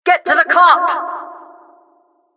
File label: announcer game starting